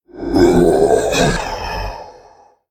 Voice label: Male Elemental Death